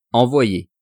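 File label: "envoyer", French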